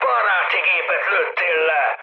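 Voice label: Hungarian player killed ally mood med, speech; War Thunder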